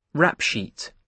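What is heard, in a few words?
"rap sheet", British English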